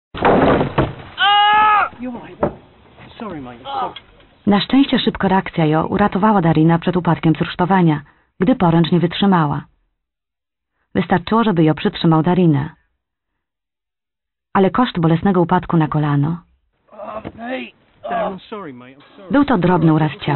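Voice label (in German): Polish Schulungsfilm Arbeitsunfall, frauen aus aller welt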